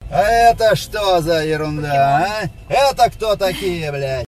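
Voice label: Russian voice of Formula 1, Ringtone for fan; Rossijskij golos Formuly 1; Rington dlya bolelcshika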